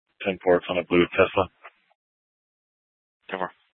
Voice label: Dispatch; Scottsdale

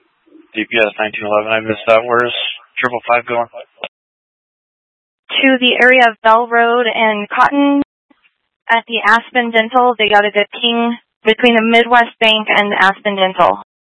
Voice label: Mutual Aid-Intersystem Interop B, scanner

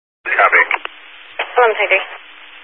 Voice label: Highway Patrol, Phoenix Metro East Area, scanner

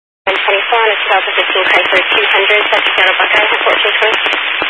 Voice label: Highway Patrol, scanner; DPS

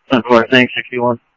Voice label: Tempe Police, scanner audio; TEMPE PD A04

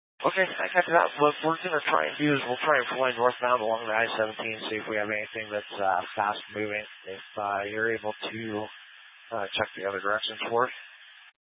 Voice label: Police Dispatch

